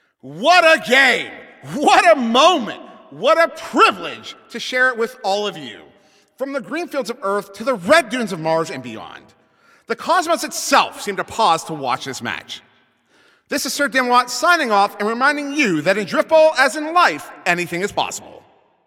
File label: Announcer Game Ends